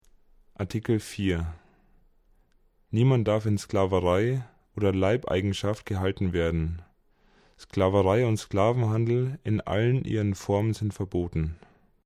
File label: German